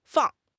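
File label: "fang4", Chinese; HSK; HSK3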